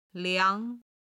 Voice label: "liang2", Chinese